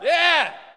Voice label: Hockey Male "Yeah"; SFX Kit; Hockey Vocal Sounds